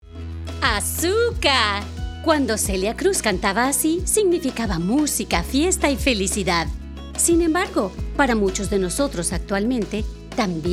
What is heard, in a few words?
radio spot latino